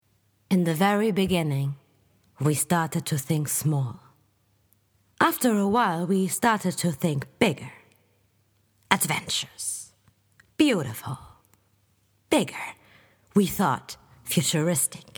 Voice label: commercial

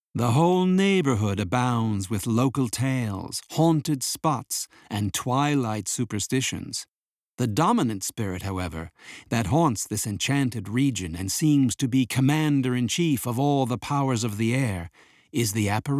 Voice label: Audio book; LOFT VOICES

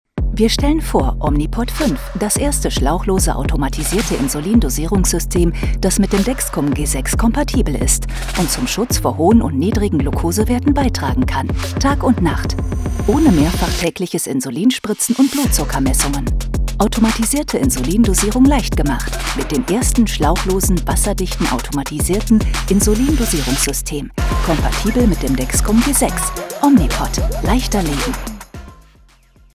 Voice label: commercial medizin

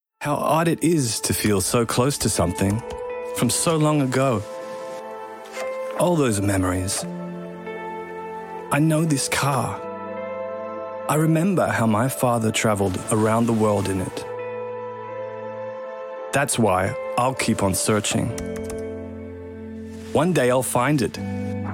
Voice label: VO COMMERCIAL; TOYOTA

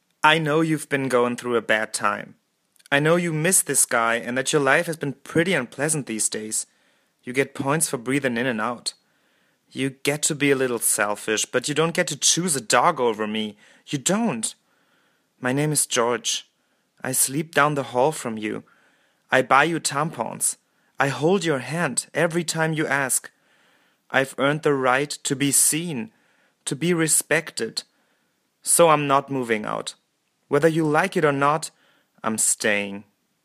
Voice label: scene english

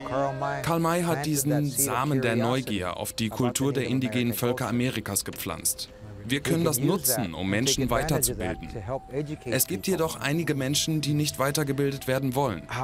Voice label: Voiceover Lipsync

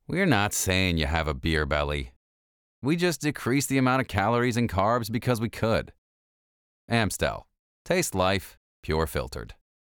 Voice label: Beer TVC; LOFT VOICES

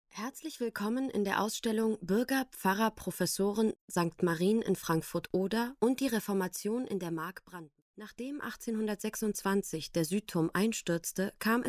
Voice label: Audio Guide

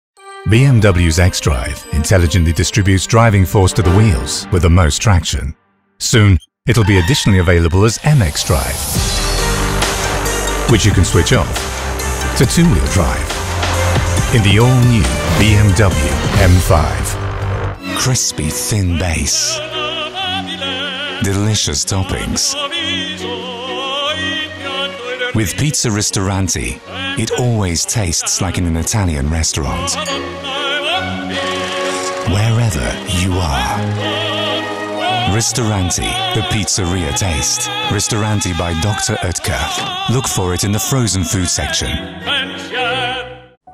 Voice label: Commercial Showreel

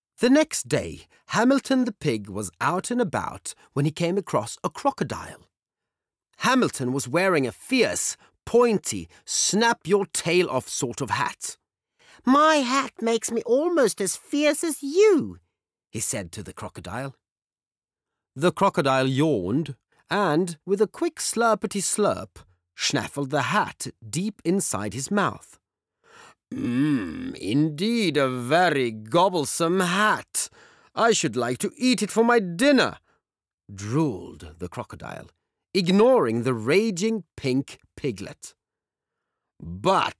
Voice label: English Childrens story

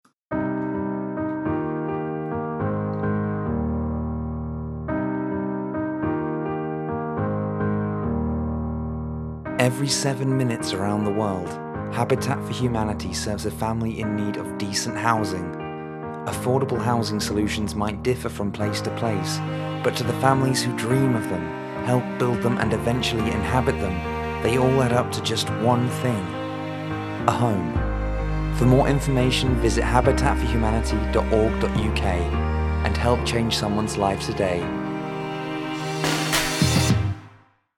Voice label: Voiceover; Habitat; LOFT VOICES

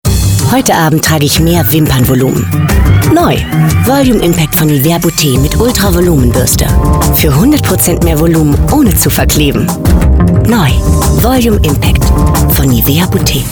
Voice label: Commercial (Werbung)

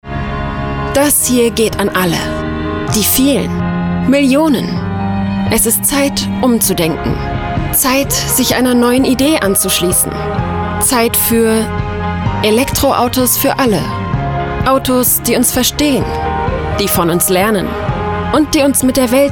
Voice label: COMMERCIAL eMobility

